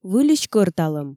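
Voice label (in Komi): "выльысь кӧрталӧм", Komi, female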